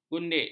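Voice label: "gundik", Malay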